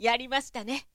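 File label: "yarimasitane", woman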